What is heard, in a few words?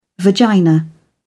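"vagina", British English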